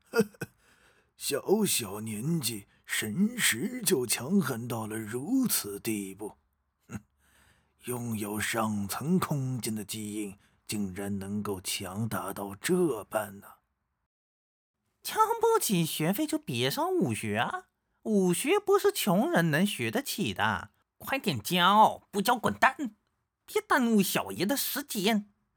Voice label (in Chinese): 老年音沙雕音